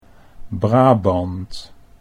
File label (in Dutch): "Brabant", Dutch